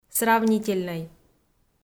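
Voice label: "сравнительнӧй", Komi, female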